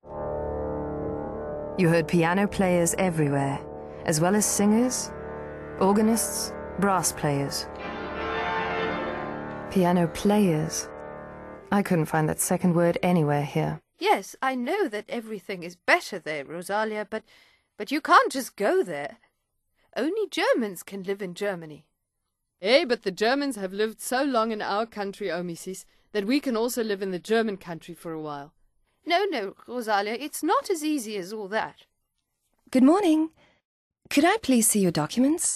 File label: Flexibel Hoerspiel, native english